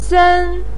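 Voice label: "sen", Chinese pinyin, first tone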